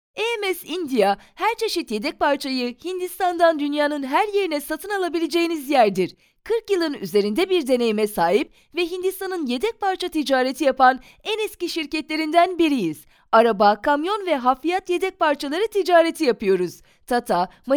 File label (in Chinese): 土耳其女